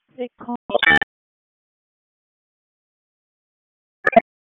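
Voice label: Police Spec Ops Tac, radio; Raleigh Police Spec Ops Tac 4; wake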